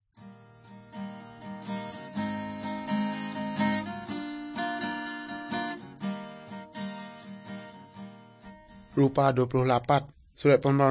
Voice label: good news, urak lawoi